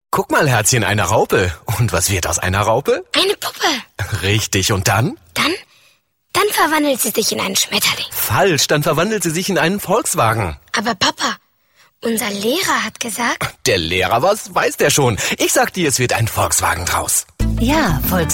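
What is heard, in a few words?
German Commercial; GoLocalise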